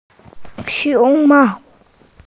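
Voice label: "xióng māo", Mandarin Chinese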